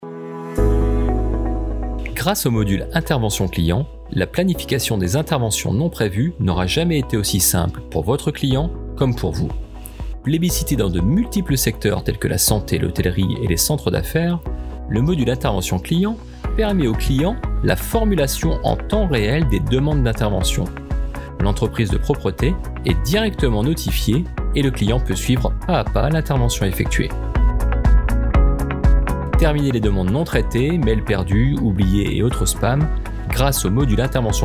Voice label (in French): VOIX OFF MIC